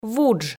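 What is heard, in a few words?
"вудж", Komi, female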